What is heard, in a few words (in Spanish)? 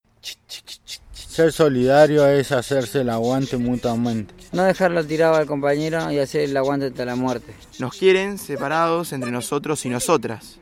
SPOT